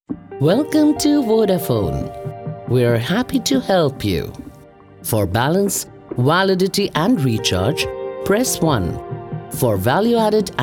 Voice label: english; vodafone

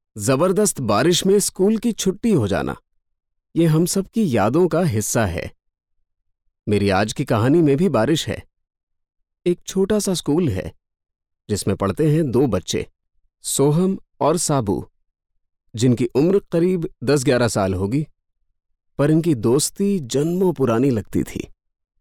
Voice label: Story Narration Hindi

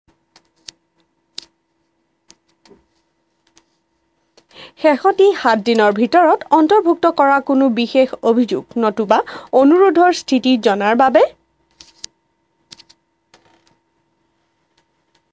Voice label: assamese

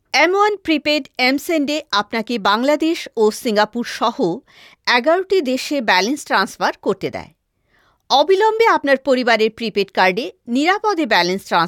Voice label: Bangladesh bangla

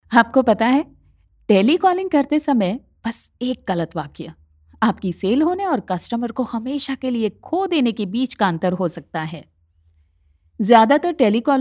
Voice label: Explainer hindi

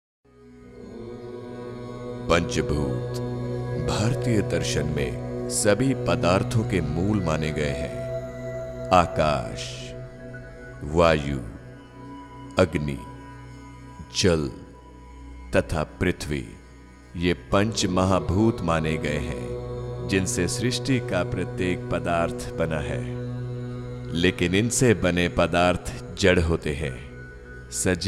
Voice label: mytho hindi

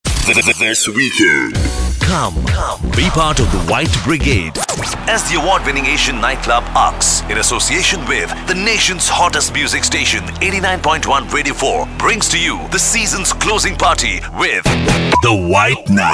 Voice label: promo eng

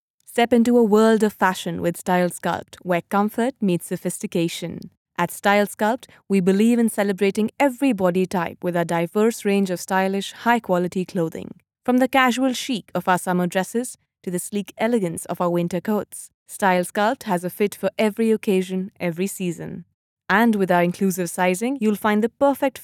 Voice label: tvc eng, voice artistes

